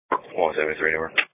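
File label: Police Patrol (Dispatch)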